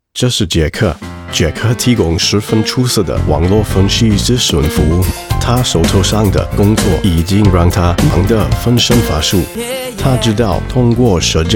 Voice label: Cince Erkek Mandarin Cin Simplified

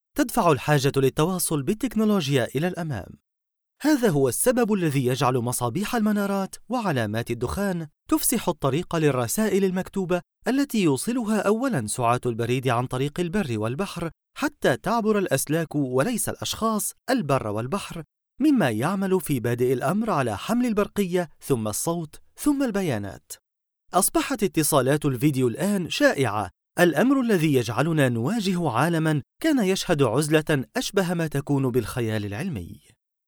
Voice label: Arapca Erkek Klasik Arapca Misirli, seslendirme; erkek sesler

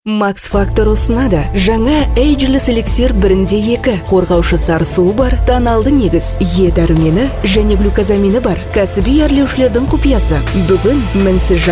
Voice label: Kazakca Kadin; seslendirme

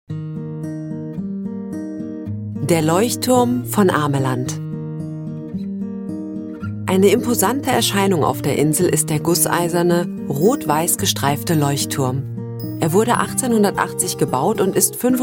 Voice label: Almanca Kadin, seslendirme

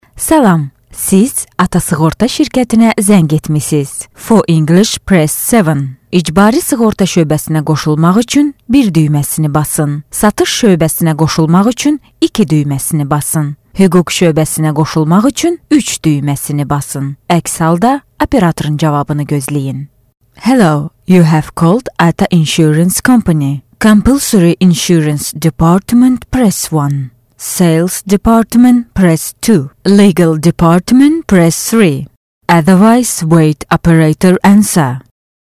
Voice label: Azerice Kadin, seslendirme

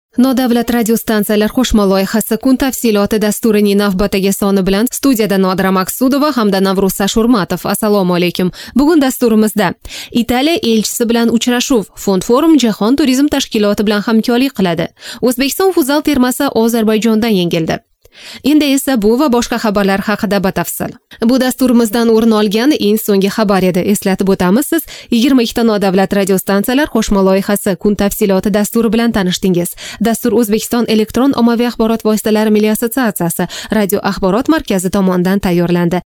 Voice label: Ozbekce Kadin, seslendirme; kadin sesler

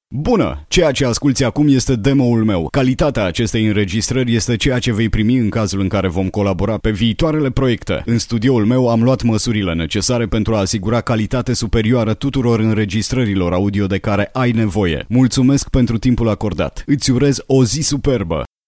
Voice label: Romence Erkek

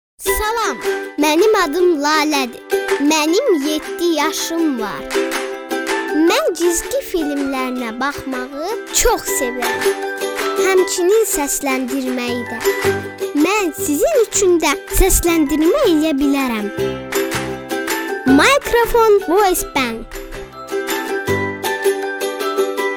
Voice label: Azerice Kadin Cocuk Kiz; seslendirme

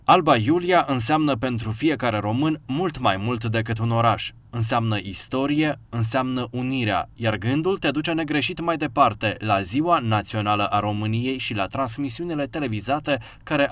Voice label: Moldovyaca Erkek, seslendirme; erkek sesler

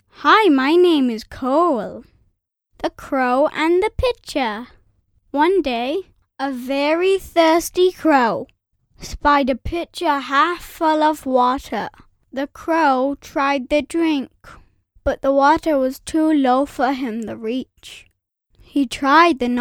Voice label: Amerikan Ingilizcesi Erkek Cocuk; seslendirme

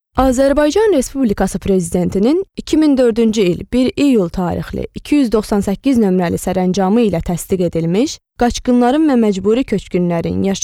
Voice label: Azerice Kadin, seslendirme

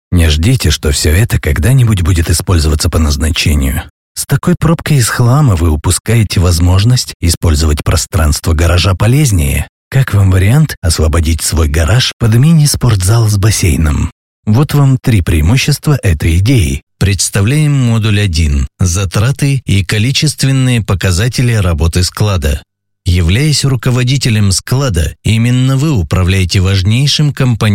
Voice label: Rusca Erkek, seslendirme; erkek sesler

